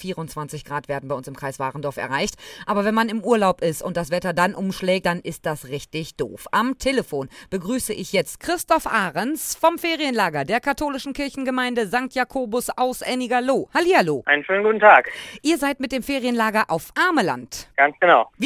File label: Radio Interview; ameland